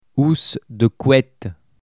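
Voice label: "housse de couette", French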